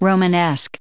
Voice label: "romanesque", us